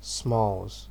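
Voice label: "smalls", Nigerian Pidgin, male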